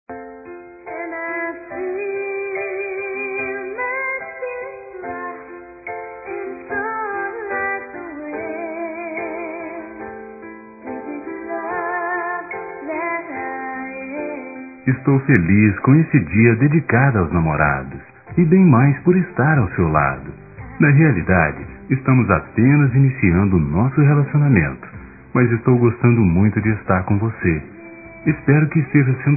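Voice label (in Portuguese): NAMORO RECENTE Masculino, Telemensagens; Dia Dos namorados; Telemensagens 2017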